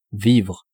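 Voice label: "vivre", French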